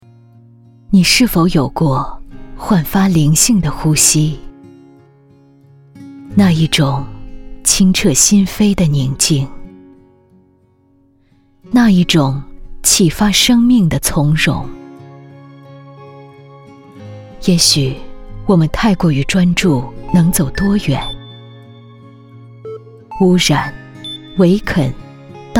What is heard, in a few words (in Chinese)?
宣传片公益保护湿地